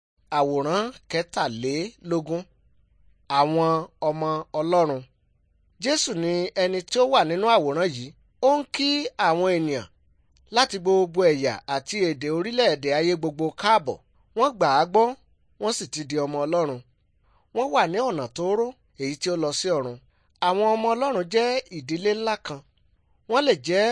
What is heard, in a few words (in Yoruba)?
good news yoruba